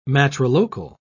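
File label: "matrilocal", British English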